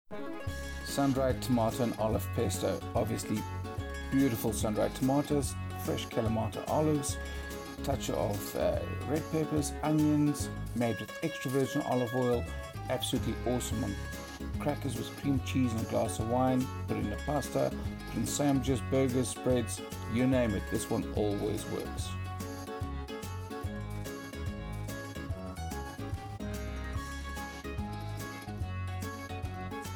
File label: music voice; hannays sun dried tomato olive pesto 260ml